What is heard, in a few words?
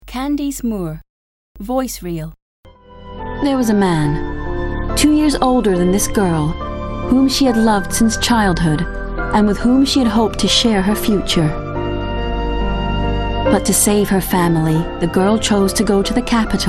Narration American